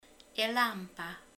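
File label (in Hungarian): "lámpa", Hungarian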